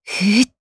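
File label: Vox Casting, Japanese; Xerah; kingsraid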